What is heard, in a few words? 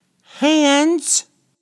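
"hands", English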